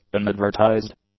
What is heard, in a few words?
"unadvertised", English; adjectives